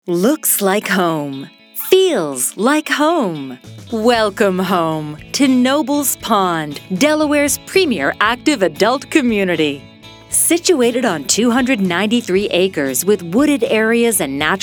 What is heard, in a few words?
Friendly Warm Happy; Nobles